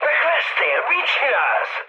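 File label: Hungarian player killed ally mood med, speech; War Thunder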